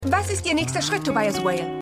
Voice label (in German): Reporterin; Black Lightning